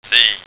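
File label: "zee", American English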